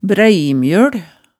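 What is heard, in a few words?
"bræimjøl", Hemsedal dialect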